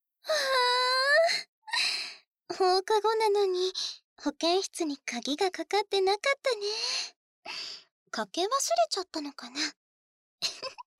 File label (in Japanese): リラックス足コキ, ASMR; (変態度80％)